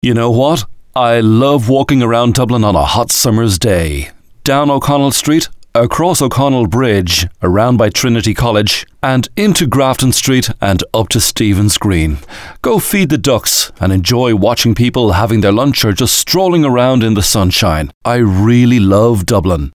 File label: normal voice, I love Dublin